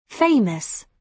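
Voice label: "famous", British English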